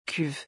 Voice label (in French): "cuve" French; pronunciation; hard and soft vowels